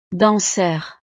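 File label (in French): "danseur", French; profesiones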